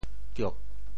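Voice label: "kiok4", Teochew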